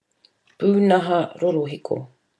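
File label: "punaha rorohiko", Māori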